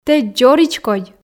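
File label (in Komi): "тэ дзоридз кодь", Komi, female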